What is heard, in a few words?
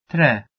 "tre" Albanian